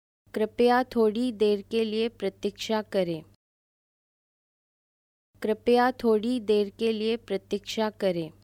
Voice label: Please wait for a while, Hindi